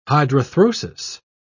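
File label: "hydrarthrosis", British English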